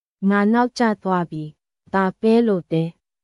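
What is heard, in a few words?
Myanmar; ミャンマー語; ネガティブな感情――疲れ、怒り、悲しみ、落胆、不安; negative words in japanese to use when youre feeling down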